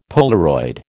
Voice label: "Polaroid", American